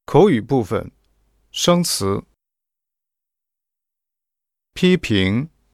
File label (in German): dialog vokabeln; lektion 4